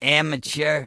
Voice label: Goblin Male Gruff NPC Pissed